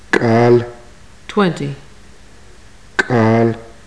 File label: numbers, maya